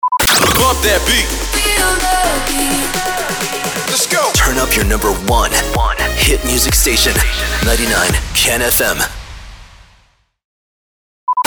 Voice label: SWEEPER